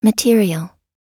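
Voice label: "material", English; oxford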